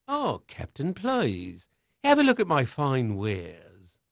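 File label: English male; Pirates Of The Caribbean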